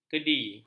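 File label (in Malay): "kedi", Malay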